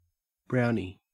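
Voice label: "brownie", Australian English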